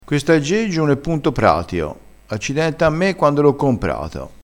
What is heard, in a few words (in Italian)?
"pratio", crespinese